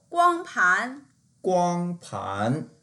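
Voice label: "guang1pan2", Mandarin Chinese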